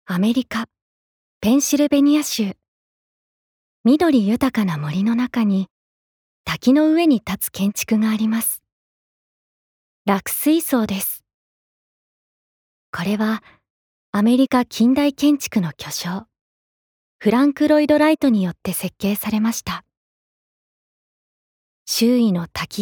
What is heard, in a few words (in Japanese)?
ナレーション